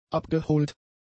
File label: "abgeholt", German; adjectives